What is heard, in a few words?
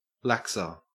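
"laxer", Australian English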